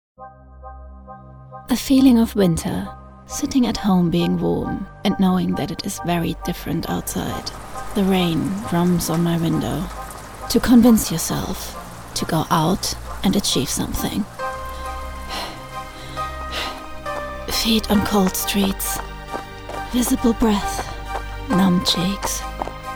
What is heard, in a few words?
EN; Adidas